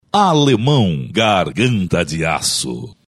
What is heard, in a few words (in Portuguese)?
vinheta voz